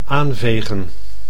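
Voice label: "aanvegen", Dutch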